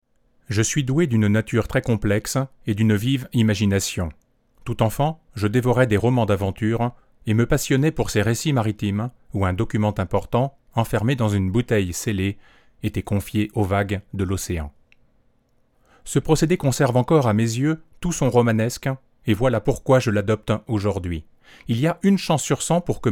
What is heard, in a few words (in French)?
test micro tlm103 lecture adulte